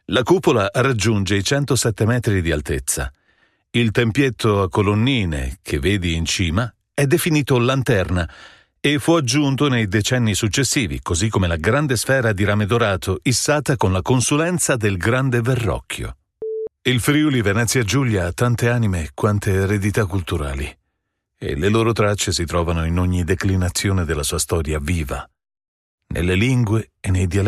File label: Narration